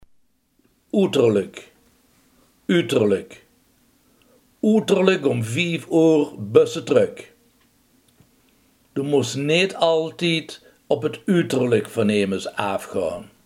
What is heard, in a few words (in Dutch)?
"uiterlijk", limburgse